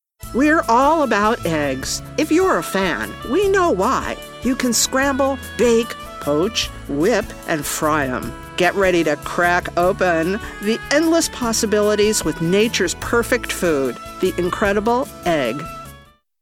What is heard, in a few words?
Commercial; Egg